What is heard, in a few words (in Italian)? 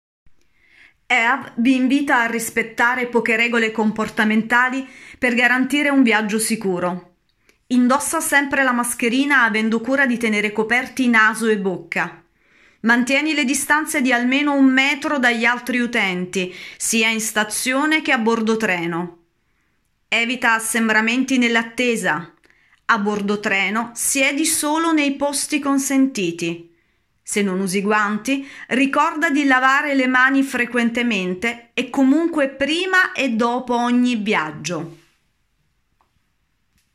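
Annuncio sonoro covid19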